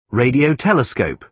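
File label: "radio telescope", British English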